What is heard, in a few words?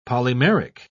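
"polymeric", British English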